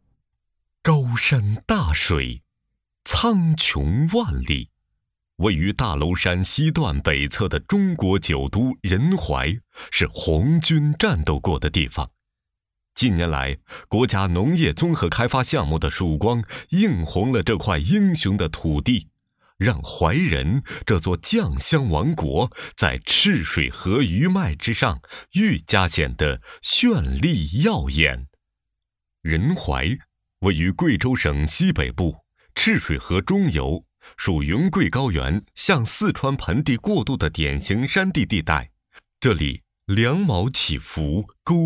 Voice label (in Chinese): 男 专题; 酒都仁怀市